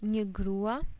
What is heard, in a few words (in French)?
Femme